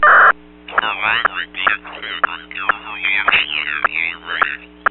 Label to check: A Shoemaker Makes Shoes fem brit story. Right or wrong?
Wrong